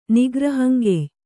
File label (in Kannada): "ನಿಗ್ರಹಂಗೆಯ್", kannada